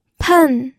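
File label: "pen", Mandarin Chinese, fourth tone; pinyin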